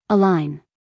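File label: "align", American English, female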